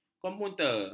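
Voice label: "komuter", Malay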